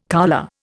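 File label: "coulée", Indian English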